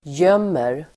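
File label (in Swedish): "gömmer", Swedish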